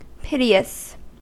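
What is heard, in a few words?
"piteous", American English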